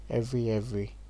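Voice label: "every every", Nigerian Pidgin, male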